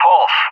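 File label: "PULSE" English, speech; JTAC; DCS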